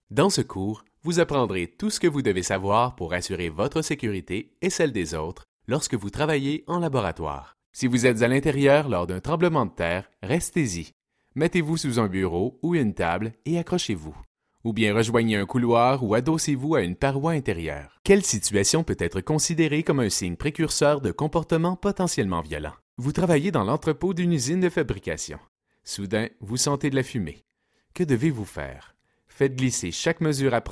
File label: eLearning/Training Male French (Canadian)